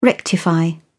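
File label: "rectify", British English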